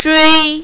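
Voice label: "zhui" first tone, mandarin, female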